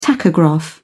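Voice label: "tachograph", British English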